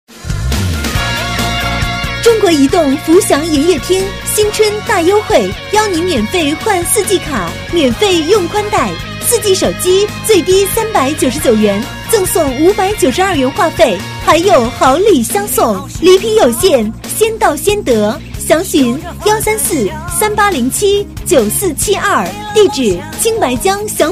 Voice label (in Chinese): 女, 门面促销, 国语女声; 中国移动福祥营业厅